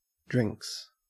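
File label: "drinks", Australian English; pronunciation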